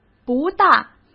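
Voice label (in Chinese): "不大", Mandarin Chinese; hsk; chinese-flashcards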